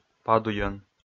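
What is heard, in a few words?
"падуйӧн", Komi, male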